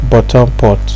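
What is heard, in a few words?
"bottom pot", Nigerian Pidgin, male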